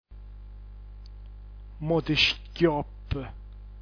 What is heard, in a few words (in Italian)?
"motschiopp", dialetto giulianova